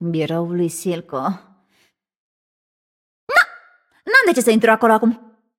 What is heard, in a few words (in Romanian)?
Room2 VO Object Interact, vo, ro-ro